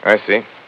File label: "i see", male; Voice